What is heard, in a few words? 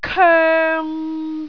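"koeng3", Cantonese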